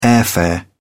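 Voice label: "airfare", British English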